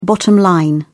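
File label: "bottom line", British English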